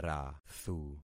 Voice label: "rathu", concat syllables; Affixing experiment

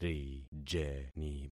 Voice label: "rijeni", concat syllables; Affixing experiment

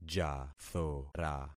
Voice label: "jathora", concat syllables; Affixing experiment

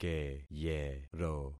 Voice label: "keyero", concat syllables; Affixing experiment

